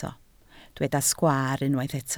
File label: "sgwaar", Welsh